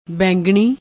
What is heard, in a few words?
"bainganni", Punjabi